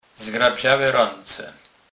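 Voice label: "zgrabzale", Polish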